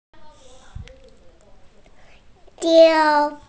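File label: "diu1", Mandarin Chinese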